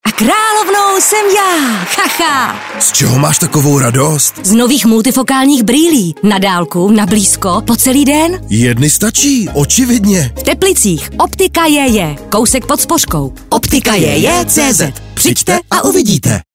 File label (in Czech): reklama radio